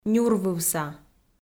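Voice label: "нюрвывса", Komi, female; kpv audio dict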